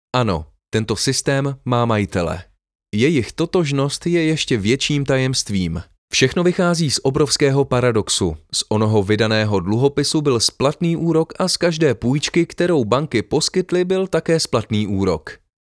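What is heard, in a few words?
Audiobook, voice over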